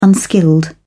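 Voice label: "unskilled", British English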